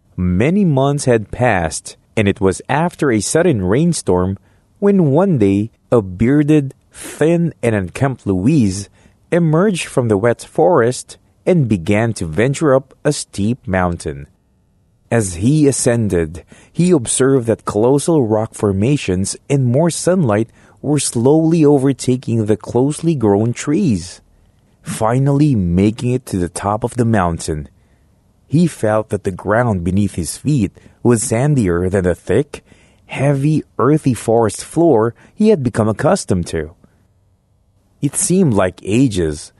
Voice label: Filipino English Audiobook

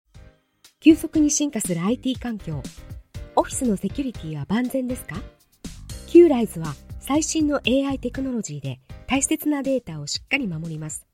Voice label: Japanese Corporate, Japanese Female

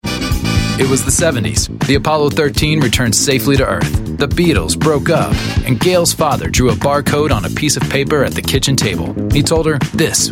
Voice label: US English narration sample

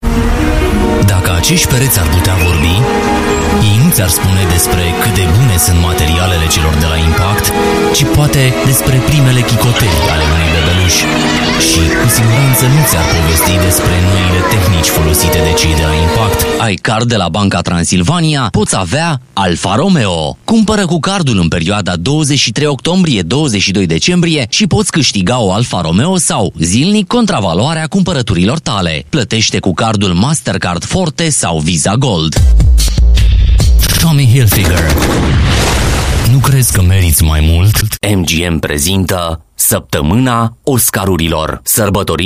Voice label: Romanian, Romanian Male

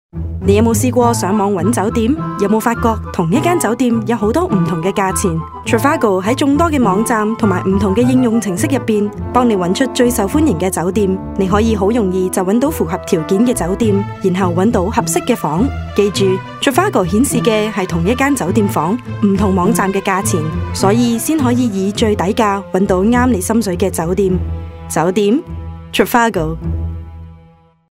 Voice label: Cantonese Online Spot Full Mix

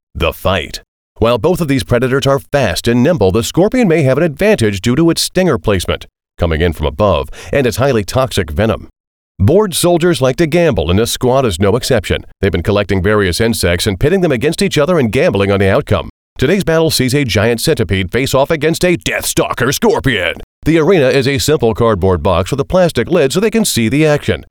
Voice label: US English Fight Action

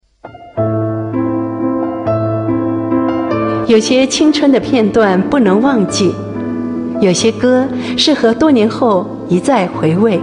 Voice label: Mandarin, Mandarin China Female

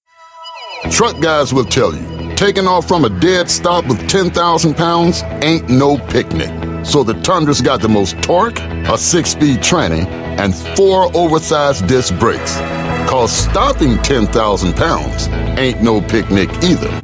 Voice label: US English Commercial

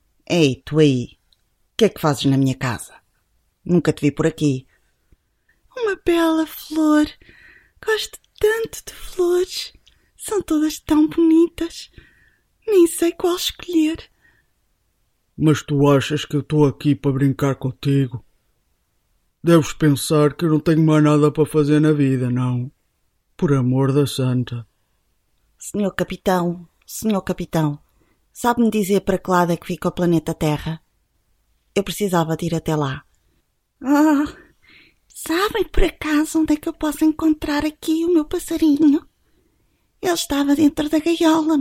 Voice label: Portuguese Animation

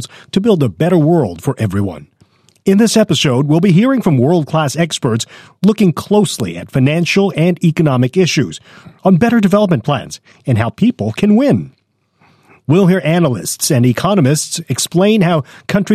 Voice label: Asian English Corporate; ADB

